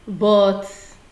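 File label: "but", Nigerian Pidgin, female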